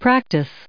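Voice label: "practice", us English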